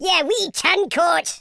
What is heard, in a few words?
traitor, Scotsman; speech